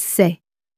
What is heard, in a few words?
"c est", french